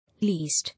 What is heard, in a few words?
"Least", English